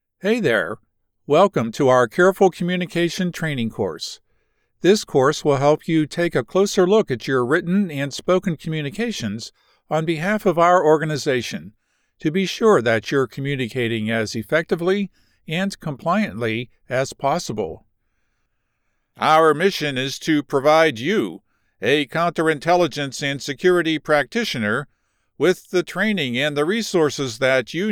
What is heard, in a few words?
Elearning; demo reel